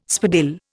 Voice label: "spadille", Indian